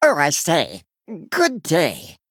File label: Male Murloc Greetings